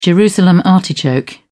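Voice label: "jerusalem artichoke", British English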